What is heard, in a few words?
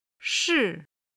"shi4", Chinese